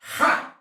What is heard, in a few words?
Attack, Soldier Male; Sinecure